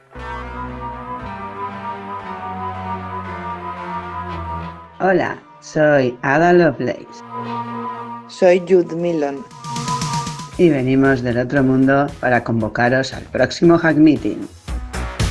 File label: spot ghostbuster